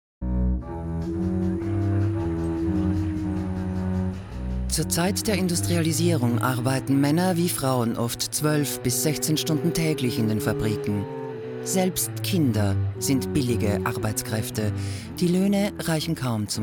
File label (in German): off voice sample